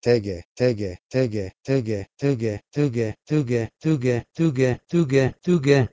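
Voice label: Proto-Indo-European tege from Proto-Indo-European tuge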